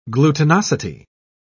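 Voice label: "glutinosity", English, British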